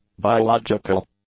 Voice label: "biological", English; adjectives